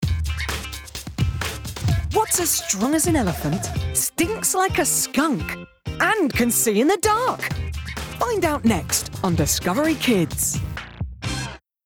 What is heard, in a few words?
Promo